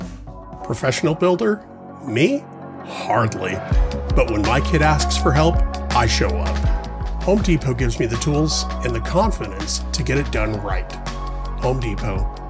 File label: Commercial VO; Home Depot; Phoenix AZ; home studio